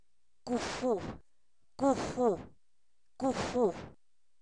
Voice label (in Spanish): camote, Zapoteco, san miguel albarradas